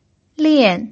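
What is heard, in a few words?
"lian", Mandarin Chinese pinyin, tone 4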